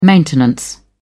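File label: "maintenance", British English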